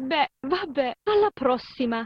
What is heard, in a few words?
italian voice; BALLY; CORVETTE; Son Corvette Original